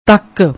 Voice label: "takk", Punjabi; ਤੱਕ